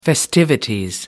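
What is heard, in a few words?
"festivities", British English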